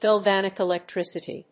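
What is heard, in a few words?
"galvanic electricity", English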